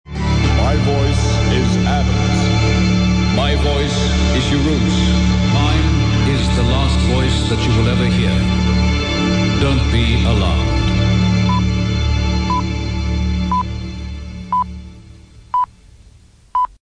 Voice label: my voice, jingles